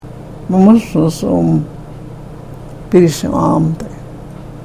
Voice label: karuk